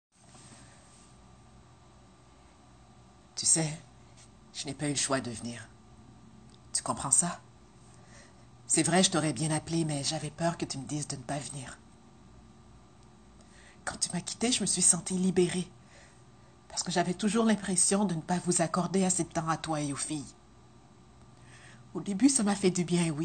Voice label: Doublage; UDA

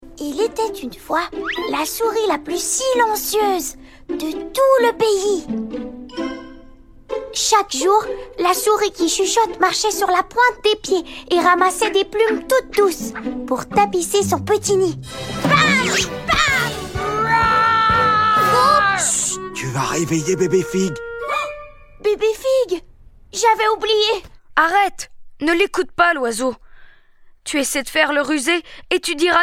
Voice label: VOIX GARCON

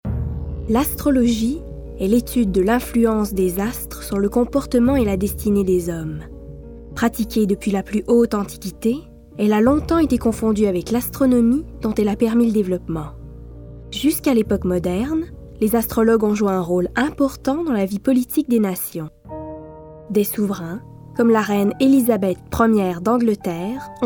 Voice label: narration

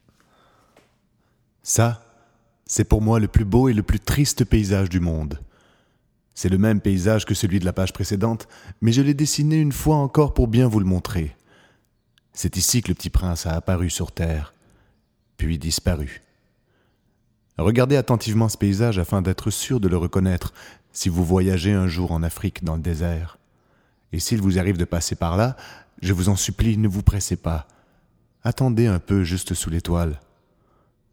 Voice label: Narration; Le petit prince

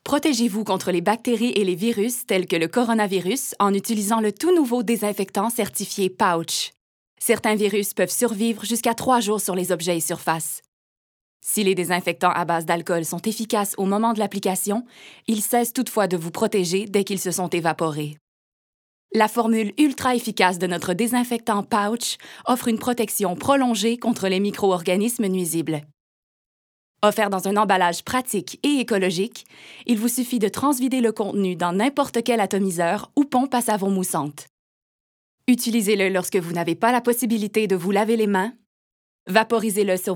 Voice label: Voix Français et Anglais

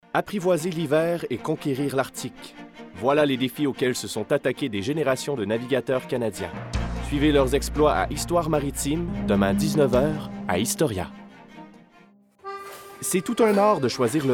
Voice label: demo publicites

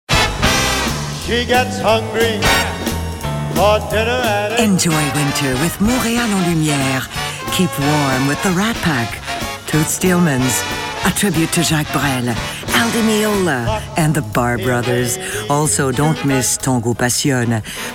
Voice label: démo anglais

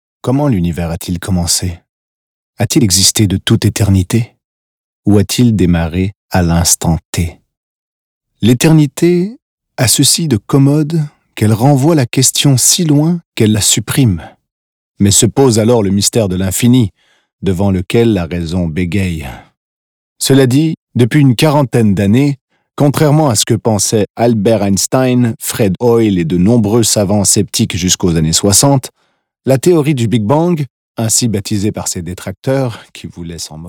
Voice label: narration

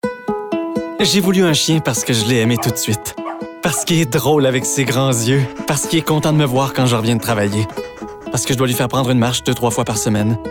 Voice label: Démo Animaux

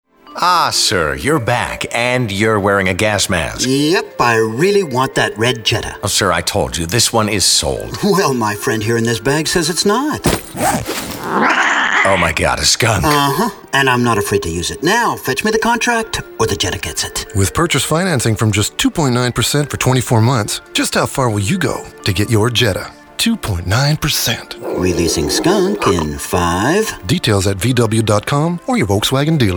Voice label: démo anglais

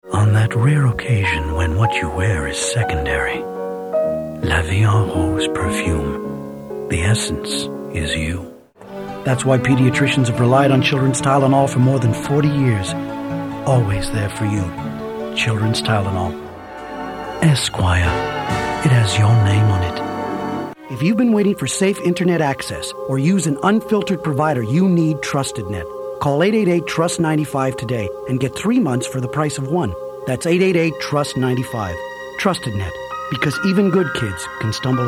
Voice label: démo anglais

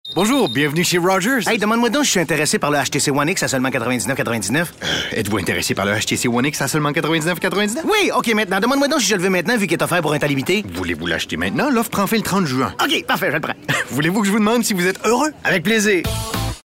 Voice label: Vendeur Comique

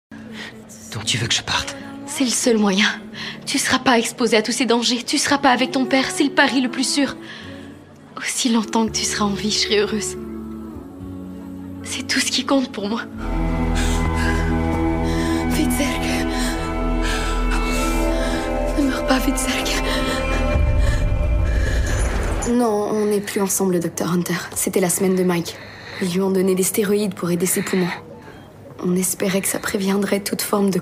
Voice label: DOUBLAGE

